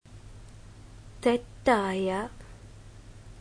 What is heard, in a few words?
"wrong", Malayalam; thettaaya